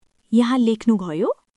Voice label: Nepali; 職場; 気遣いフレーズ; learn nepali using katakana; ネパール語で深める職場の絆